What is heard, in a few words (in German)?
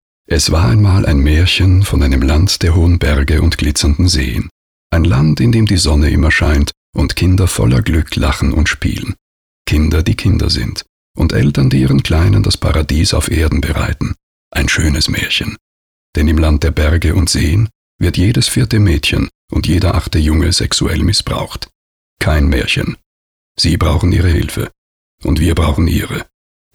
Hörfunk; Märchen